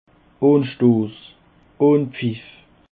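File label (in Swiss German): "anstoss", Alsatian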